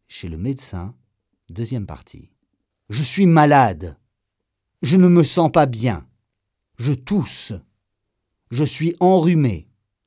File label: french male